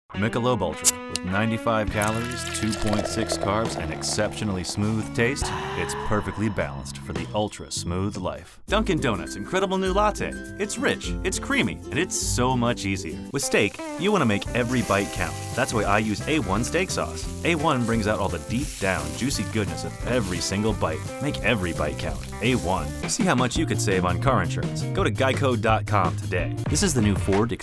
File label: comm VO